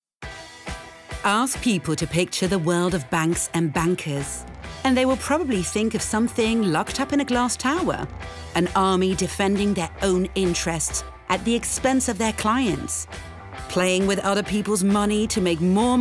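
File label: English uk energetic; BNP Paribas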